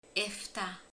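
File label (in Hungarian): "hét", Hungarian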